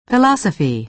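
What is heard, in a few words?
"philosophy", American English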